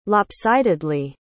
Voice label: "lopsidedly", pronounce

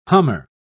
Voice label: "hummer", pronounce

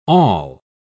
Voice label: "awl", pronounce

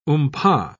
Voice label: "oompah", pronounce

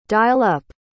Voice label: "dial-up", pronounce

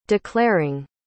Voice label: "declaring", pronounce

